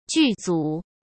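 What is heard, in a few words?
"剧组", Mandarin Chinese; hsk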